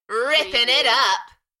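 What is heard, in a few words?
British female Rippin it up Enthusiastic; beanstalk audio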